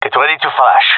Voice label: request flash, gendermerie male